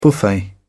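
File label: "buffet", British English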